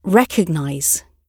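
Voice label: "recognize", British English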